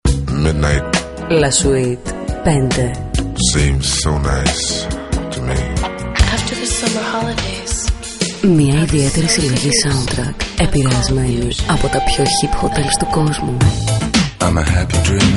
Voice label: RADIO SPOT; La SUITE 5